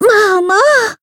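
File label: Voice damaged, Japanese; pizzica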